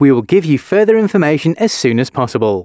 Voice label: announcements, male